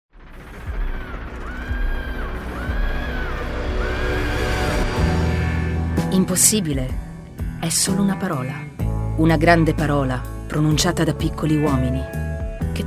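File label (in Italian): pubblicità scarpe da ginnastica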